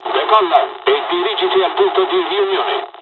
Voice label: jpn takeoff, dialogs italian; midway; War Thunder